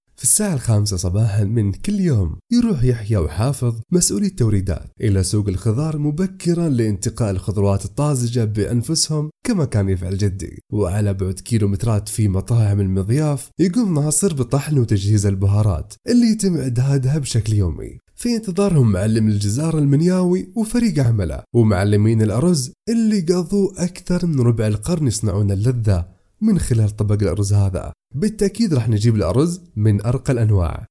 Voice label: Arabic Voice Over

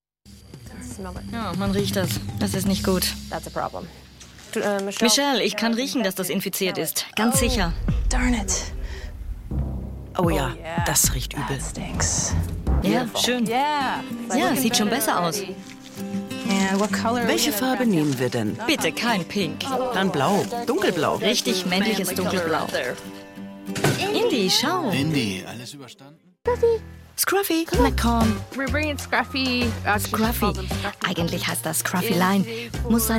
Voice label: various dubbing examples

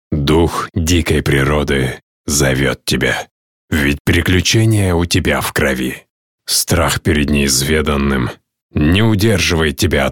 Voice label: Russian Male Warm Calm

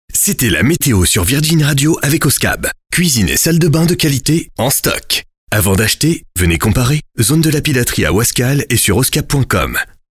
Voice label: French voice over exciting high tempo

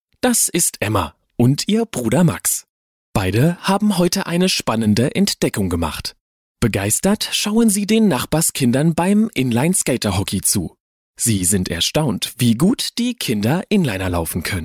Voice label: English Voice Over

